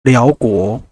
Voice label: laos; gcompris-data; geography

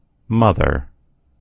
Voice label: "mother", en US; gcompris

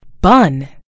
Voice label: "bun", en GB; gcompris

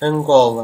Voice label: "angola", pt; gcompris; geography

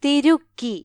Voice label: "wrench", ml; gcompris

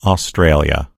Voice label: "australia", en_US; gcompris; geography

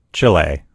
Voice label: "chile", en US; gcompris; geography

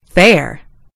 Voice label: "fair", en US; gcompris

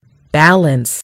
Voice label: "balance", en GB; gcompris

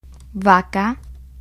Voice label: cow, es; gcompris

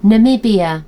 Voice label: "namibia", en GB; gcompris

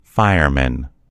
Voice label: "fireman", en US; gcompris

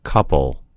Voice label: "couple", en US; gcompris

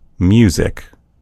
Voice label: "music", en US; gcompris

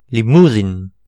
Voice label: "limousin", br, france; gcompris

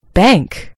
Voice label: "bank", en GB; gcompris

